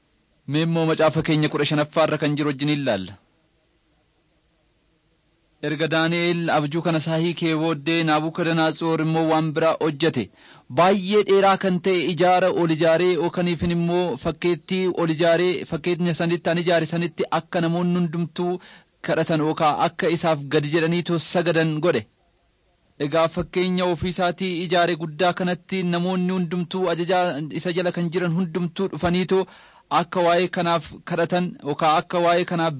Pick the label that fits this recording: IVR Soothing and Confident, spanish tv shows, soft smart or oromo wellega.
oromo wellega